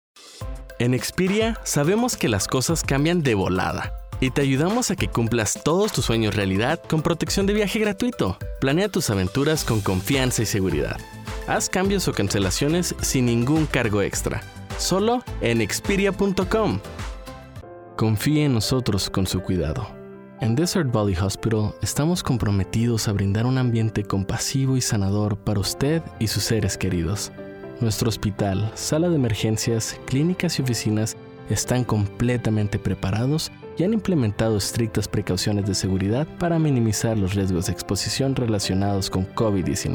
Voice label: Spanish Commercial

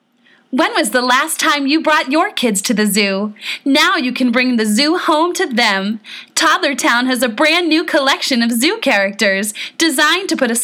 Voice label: Voice Over Reel

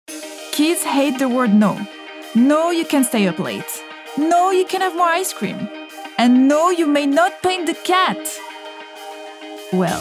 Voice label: Commercial ENG

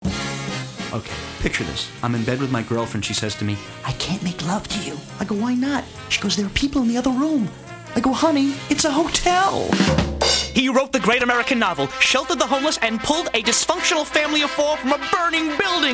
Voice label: a man of many voices

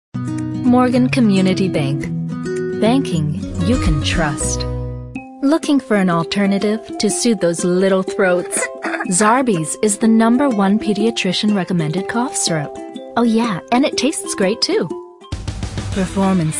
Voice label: commercial

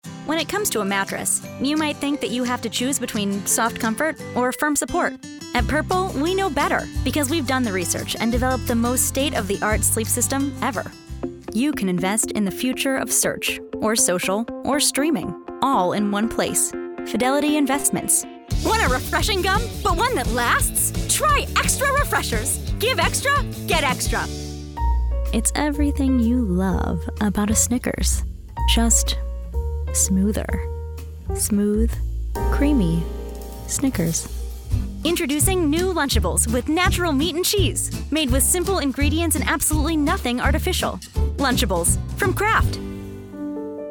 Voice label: Commercial

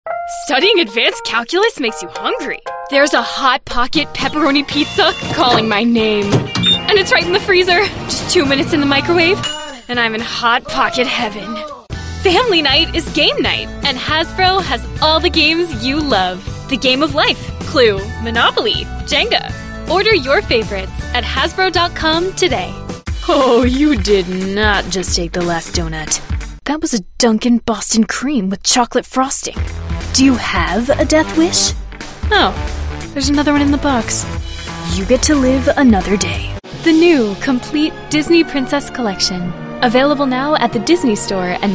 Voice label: Commerical

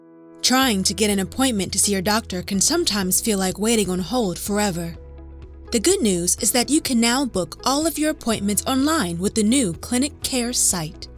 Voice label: Corporate Commercial

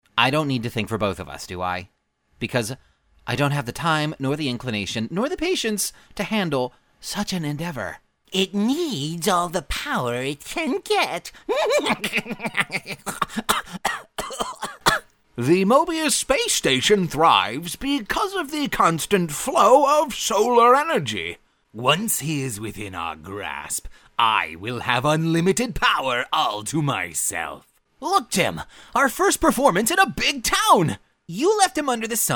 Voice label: animation

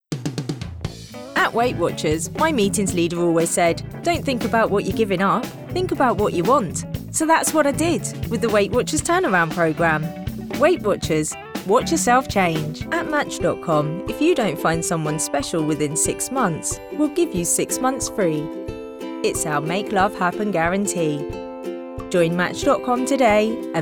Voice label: Commercial

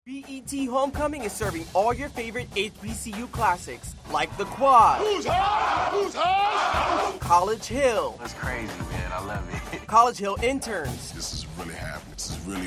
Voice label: Promo VO

